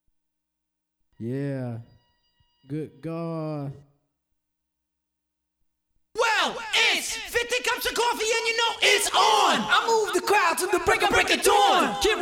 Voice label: acapella